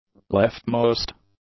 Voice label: "leftmost", english; adjectives